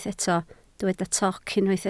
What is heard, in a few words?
"toc", Welsh